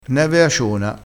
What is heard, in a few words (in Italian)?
"neve aciona", crespinese